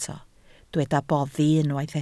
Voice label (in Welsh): "boddi", Welsh